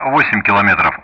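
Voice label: Speech Sound Russian Common Player; DCS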